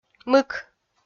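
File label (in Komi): "мык", Komi, female; kpv audio dict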